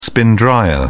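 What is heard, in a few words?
"spin dryer", British English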